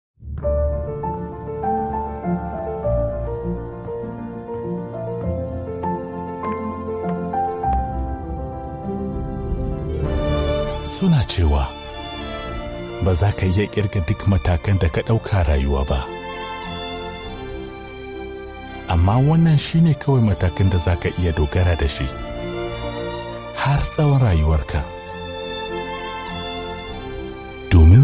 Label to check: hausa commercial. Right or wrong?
Right